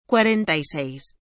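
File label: "cuarenta y seis", Spanish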